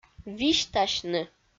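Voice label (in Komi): "висьтасьны", Komi, female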